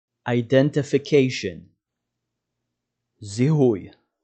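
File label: "identification" English, "זיהוי" Hebrew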